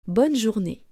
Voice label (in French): "Bonne journee", French